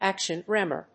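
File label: "action tremor", English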